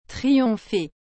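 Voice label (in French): "triompher", French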